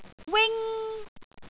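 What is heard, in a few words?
"wing1", Cantonese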